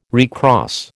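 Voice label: "recross", American English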